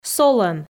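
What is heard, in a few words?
"солӧн", Komi, female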